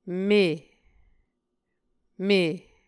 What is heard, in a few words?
"me", Muscogee